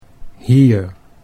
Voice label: "hier", Dutch